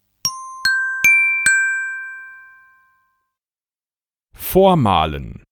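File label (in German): "vormalen", German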